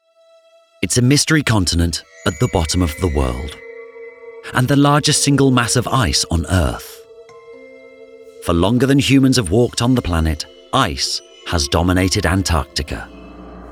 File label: Documentary Factual, British Voiceover